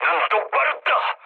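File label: Korean player friendly fire mood low, speech; War Thunder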